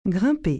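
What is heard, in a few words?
"grimper", French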